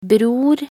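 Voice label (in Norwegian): "bror", Norwegian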